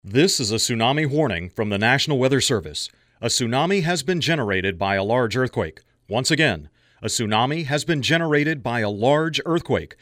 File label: Tsunami Warning